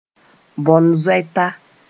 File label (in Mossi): "bõn-zoɛta", moore